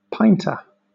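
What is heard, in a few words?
"pinta", British English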